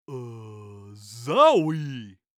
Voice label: Cartoon Voice Zowy Dumb